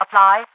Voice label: apply, radio voice; Half Life 2